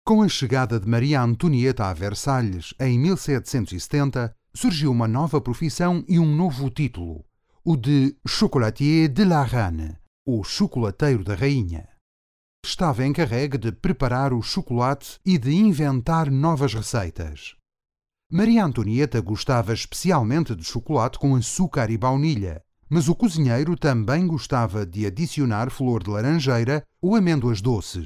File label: portuguese audio guides